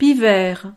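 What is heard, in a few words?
"pivert", French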